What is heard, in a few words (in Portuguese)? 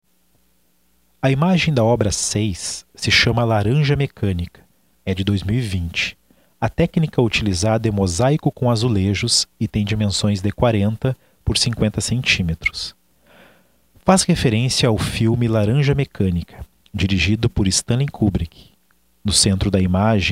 audiodescrição; galeria virtual